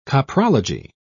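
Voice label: "coprology", British English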